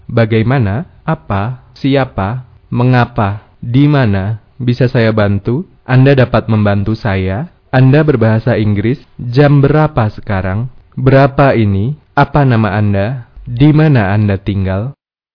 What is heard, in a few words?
Indonesian phrases